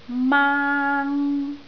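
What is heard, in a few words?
"maang1", Cantonese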